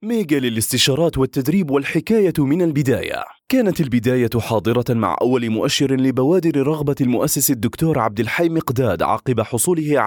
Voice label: Arabic Voice Over corporate